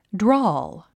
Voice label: "drawl", English